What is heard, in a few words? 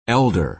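"elder", American English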